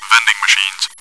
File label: "vending machines", radio bot; czero